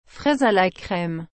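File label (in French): "fraise à la crème", French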